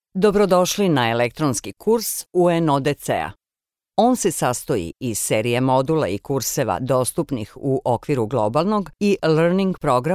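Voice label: Serbian, female, narration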